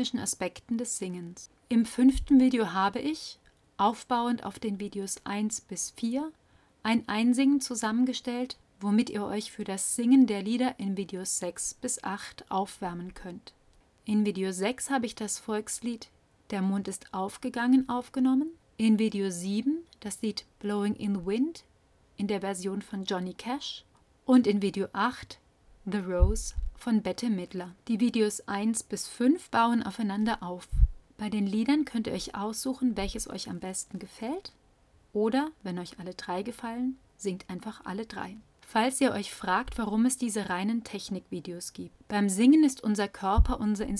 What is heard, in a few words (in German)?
Gesang Beschreibung laut